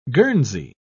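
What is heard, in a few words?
"guernsey", British English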